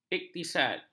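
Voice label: "iktisad", Malay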